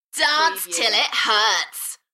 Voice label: UK female Dance till it hurts Enthusiastic; beanstalk audio